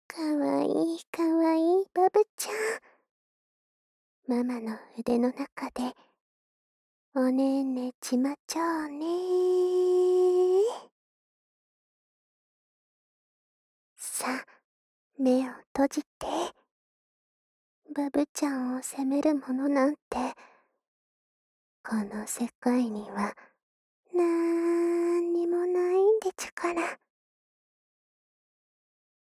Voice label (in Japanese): おやすみボイス